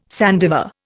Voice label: "sandiver", Indian

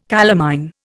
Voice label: "calamine", Indian

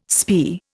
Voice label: "spae", Indian

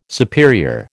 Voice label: "superior", American

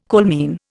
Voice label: "coalmine", Indian

